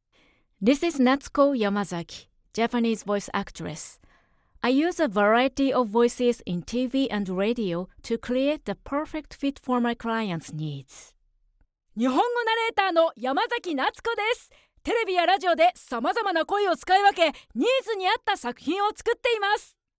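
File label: english announcements, Femelle; Annonces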